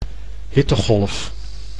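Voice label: "hittegolf", Dutch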